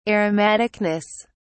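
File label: "aromaticness", British English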